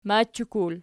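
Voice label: "machakul", nominative, Archi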